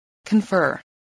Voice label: "confer", english